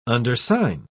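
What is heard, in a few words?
"undersign", English, British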